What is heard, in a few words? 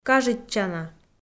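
"кажитчана", Komi, female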